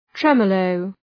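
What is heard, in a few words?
"tremolo", English; {‘tremə,ləʋ}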